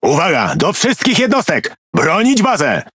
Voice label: Speech Quick Commands Ally Base, PL; World Of Warships